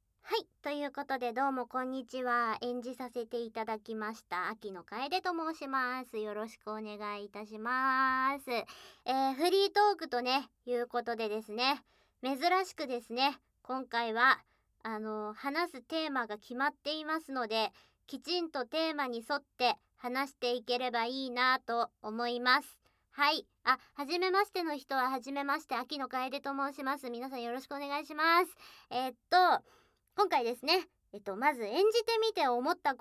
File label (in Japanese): フリートーク; KU100